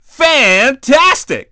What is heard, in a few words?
dj nice; DJ VOICE; Nintendo; July 2020 Gigaleak